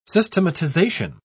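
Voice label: "systematization", British English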